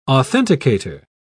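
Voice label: "authenticator", British English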